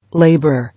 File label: "Labourer", English